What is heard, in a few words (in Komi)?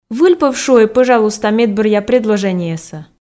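"выль пӧв шуӧй пӧжалуйста медбӧръя предложенньӧсӧ", Komi, female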